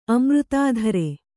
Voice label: "ಅಮೃತಾಧರೆ", Kannada

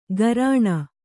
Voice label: "ಗರಾಣ", kannada

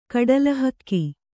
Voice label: "ಕಡಲಹಕ್ಕಿ", Kannada

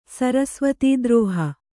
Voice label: "ಸರಸ್ವತೀದ್ರೋಹ", Kannada

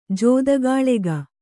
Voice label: "ಜೂದುಗಾಳೆಗ", Kannada

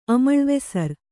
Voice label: "ಅಮಳ್ವೆಸರ್", Kannada

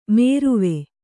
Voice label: "ಮೇರುವೆ", kannada

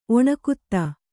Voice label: "ಒಣಕುತ್ತ", Kannada

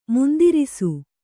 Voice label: "ಮುಂದಿರಿಸು", Kannada

